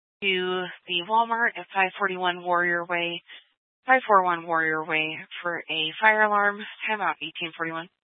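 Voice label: Fire Dispatch, scanner audio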